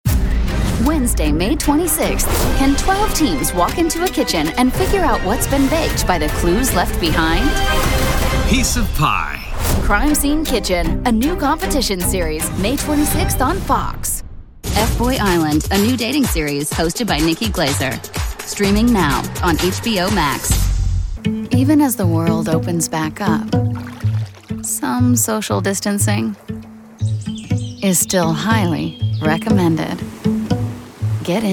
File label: Voiceover Promo